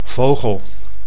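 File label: "vogel", Dutch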